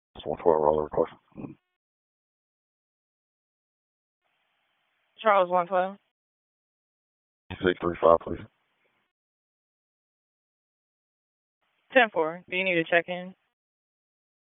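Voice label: Police Southwest District Dispatch; Raleigh; wake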